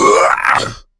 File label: character hurt